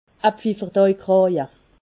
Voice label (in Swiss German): "pfieferdajkraje", Alsace